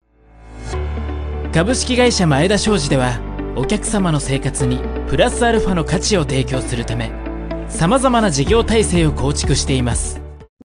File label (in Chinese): 日语男声 宣传风格